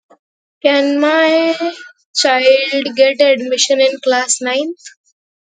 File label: voice message